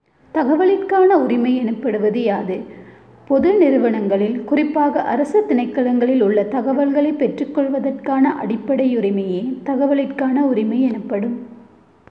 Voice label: Tamil